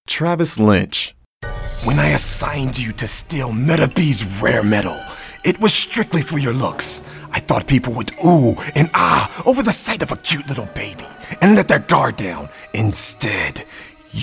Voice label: Animation

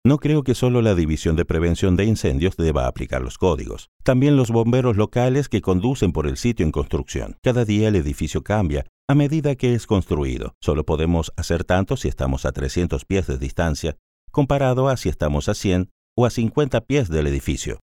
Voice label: Natural speak

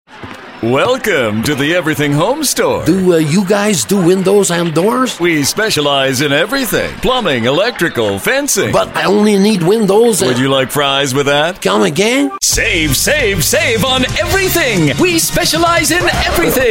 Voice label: FUNNY FRENCH GUY

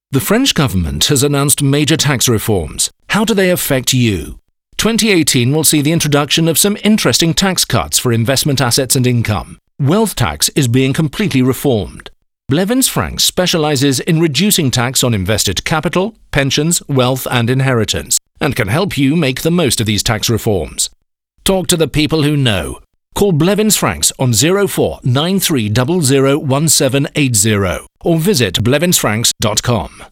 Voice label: hard hitting

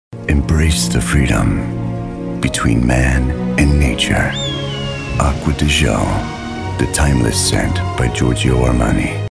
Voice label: Fragrance Sexy Intimate Relaxed Seductive; Giorgio Armani

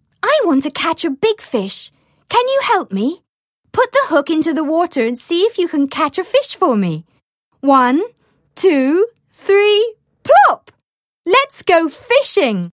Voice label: Toys Animation Instruction

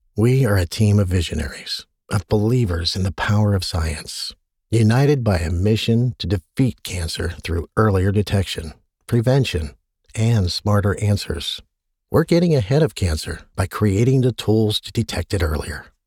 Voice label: Brand Anthem corporate VO

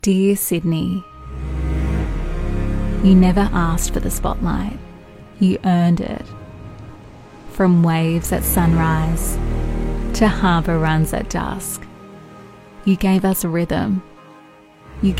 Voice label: Grounded soft emotive

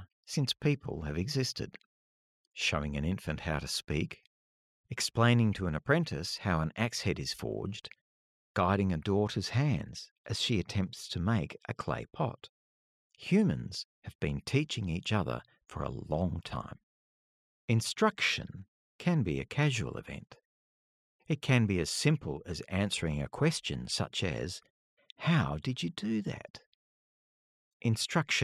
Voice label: Audiobook Instructional Design, male; Audiobooks

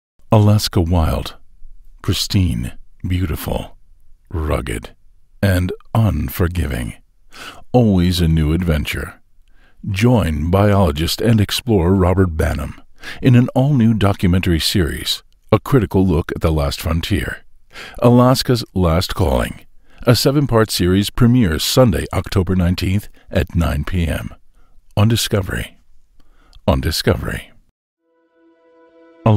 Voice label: deep male voice over

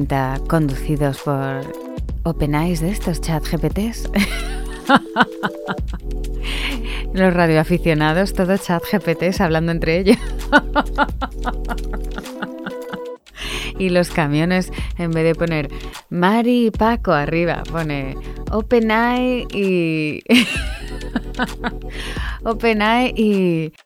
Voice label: SPANISH VOICE PODCAST

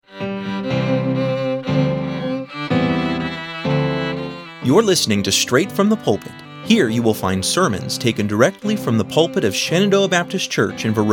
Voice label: Podcast Intro

